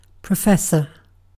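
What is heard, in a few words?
"professor", British English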